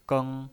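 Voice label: "geng", Mandarin Chinese, first tone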